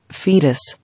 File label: "Foetus", English